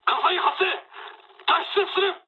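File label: on fire mood low, speech japanese; War Thunder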